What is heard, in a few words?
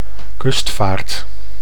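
"kustvaard", Dutch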